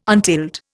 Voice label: "untilled", Indian

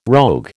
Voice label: "rogue", American

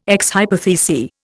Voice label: "ex hypothesi", Indian

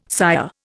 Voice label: "sire", Indian

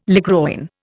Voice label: "ligroin", Indian

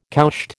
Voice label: "cowshed", American

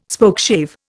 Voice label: "Spokeshave", Indian

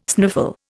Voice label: "sniffle", Indian

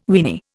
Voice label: "weeny", Indian

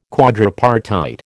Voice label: "quadripartite", American

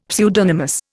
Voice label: "pseudonymous", Indian; Dictionary

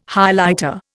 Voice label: "Highlighter", Indian

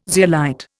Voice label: "zeolite", Indian

